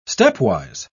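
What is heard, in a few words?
"stepwise", British English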